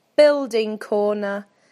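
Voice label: "building corner", British English; PictureDictionary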